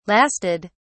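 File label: "lasted", British English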